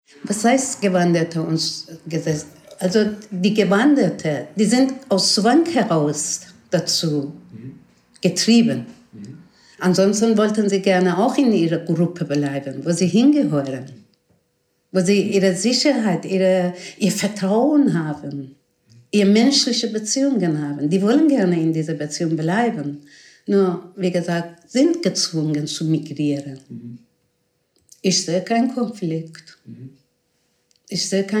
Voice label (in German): persisch